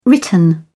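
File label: "written", English, British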